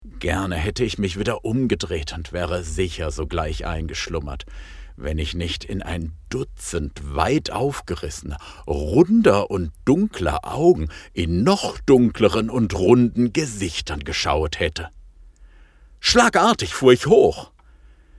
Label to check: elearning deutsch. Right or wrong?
Wrong